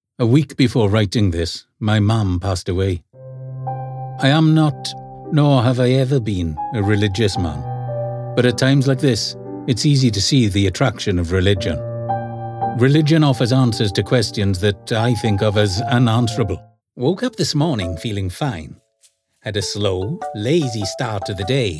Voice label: voiceover narrative british welsh male